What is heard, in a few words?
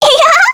Vox Happy kr; Annette; kingsraid